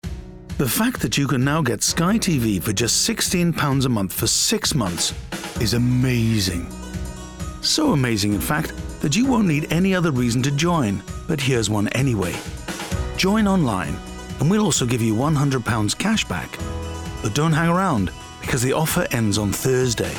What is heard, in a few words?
Announcer, Humourous, Welsh Lilt; SKY